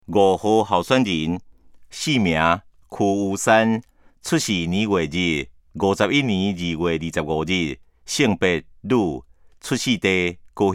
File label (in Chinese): 台語; 臺中市; 有聲公報; 市議員; 第08選區